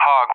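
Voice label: "Hawg", English, USA; CallSign; DCS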